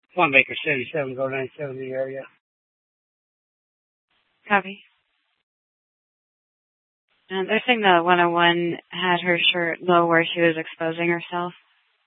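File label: Police Department Dispatch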